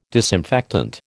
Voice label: "disinfectant", American